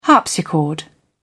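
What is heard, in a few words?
"harpsichord", British English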